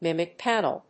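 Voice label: "mimic panel", English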